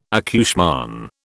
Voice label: "accouchement", British

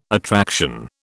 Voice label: "attraction", British

